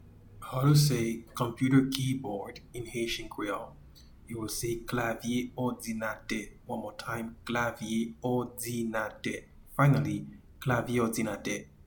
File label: Klavye odinate, Haitian Creole; Computer keyboard